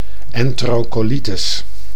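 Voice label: "enterocolitis", Dutch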